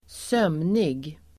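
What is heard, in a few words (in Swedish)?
"sömnig", swedish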